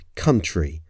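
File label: "country", English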